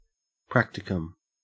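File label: "practicum", Australian English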